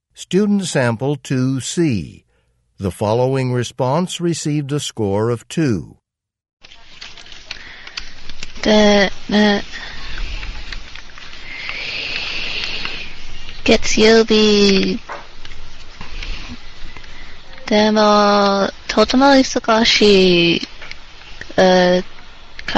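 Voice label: japanese conversation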